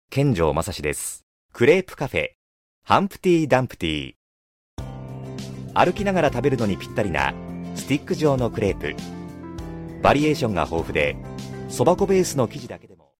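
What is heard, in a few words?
male infomercial, English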